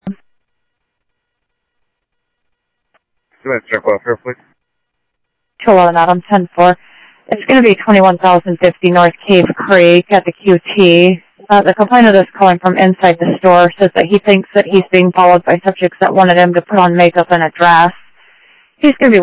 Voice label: Phoenix PD, scanner audio; PHOENIX PD-200 A; ThompsonPeakHigh